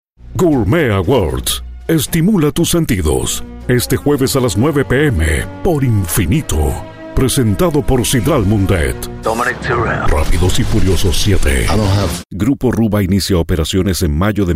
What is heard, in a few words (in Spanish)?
Locutor chileno